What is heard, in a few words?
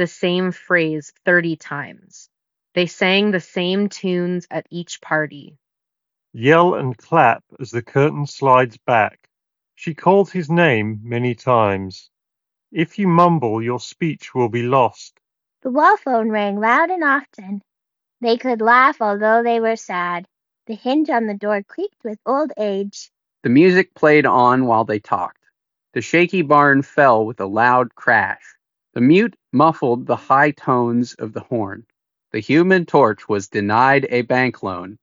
Ideal microphone sample; Jabra Elite 10 Gen 2